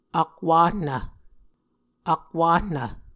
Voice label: "akwahnv", muscogee